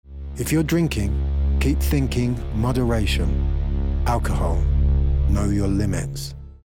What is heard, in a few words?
Alcohol Endline